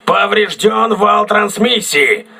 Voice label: voice message transmission damaged, crew ships russian